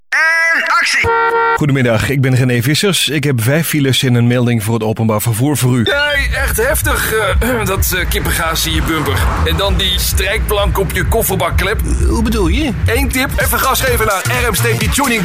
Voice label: male dutch compilation